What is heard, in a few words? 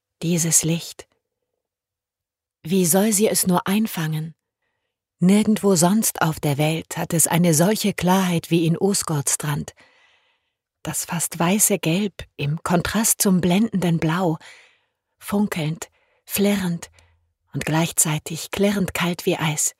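German Audiobook female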